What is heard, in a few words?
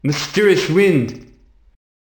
voiceclip mysterious wind; Flowery